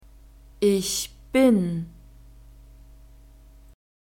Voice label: "ich bin", German